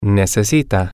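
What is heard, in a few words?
"necesita", male, spanish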